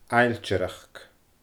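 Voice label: "ailtearachd", Scottish Gaelic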